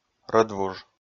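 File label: "рӧдвуж", Komi, male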